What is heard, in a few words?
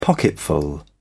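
"pocketful", British English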